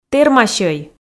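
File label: "тэрмасьӧй", Komi, female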